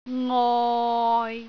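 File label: "ngoi6", Cantonese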